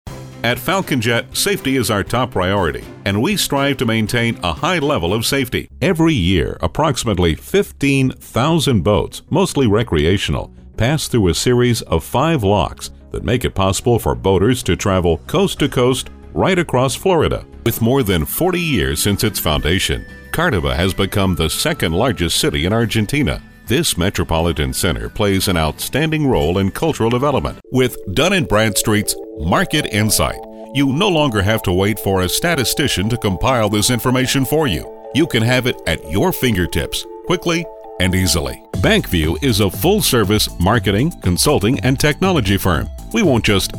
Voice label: Documentary Corporate